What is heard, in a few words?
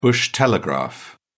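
"bush telegraph", British English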